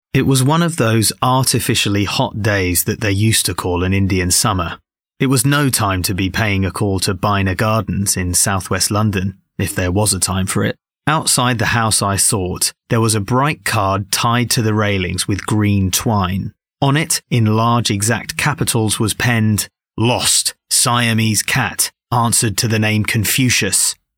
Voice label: Audiobook Sample